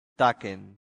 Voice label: "tacent", latin; santillana